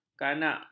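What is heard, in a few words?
"kanak", Malay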